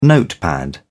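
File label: "notepad", British English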